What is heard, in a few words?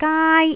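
"gaai1", Cantonese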